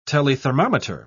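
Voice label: "telethermometer", British English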